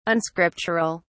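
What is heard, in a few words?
"unscriptural", British English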